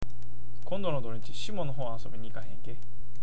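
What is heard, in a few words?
"shimo", Awaji dialect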